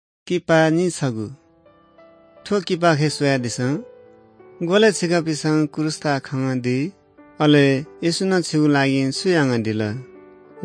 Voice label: good news newari bhaktapur